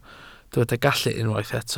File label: "gallu", Welsh